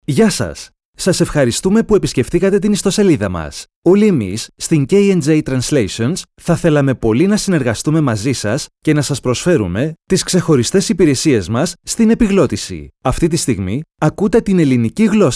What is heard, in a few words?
greek male